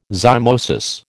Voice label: "zymosis", American

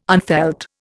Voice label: "unfelt", Indian

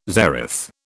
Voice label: "zeroth", British

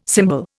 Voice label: "symbol", Indian

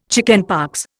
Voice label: "chickenpox", Indian

